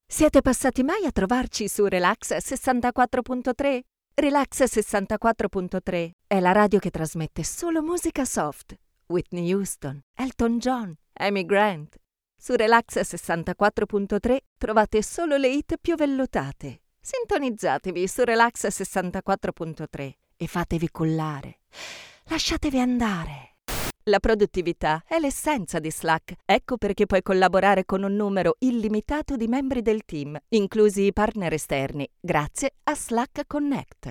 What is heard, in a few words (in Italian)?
ITA